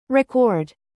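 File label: "live", verb, British; record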